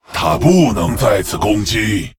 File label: Male Lich ERROR MINION ATTACKED, zh